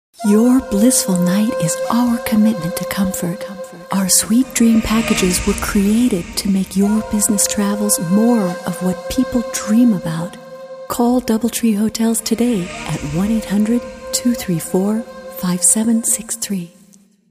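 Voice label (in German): English US Werbung Sanft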